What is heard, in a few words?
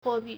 "huo4bi4", Chinese